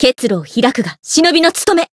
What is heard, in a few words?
Voice variable chant, jp